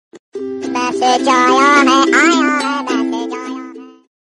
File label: Aaya hai Notification Tone